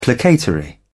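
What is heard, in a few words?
"placatory", British English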